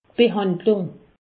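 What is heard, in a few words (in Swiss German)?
"behandlung", Alsatian; medecine; traitement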